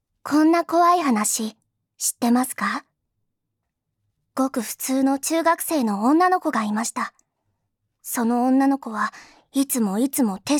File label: schoolgirl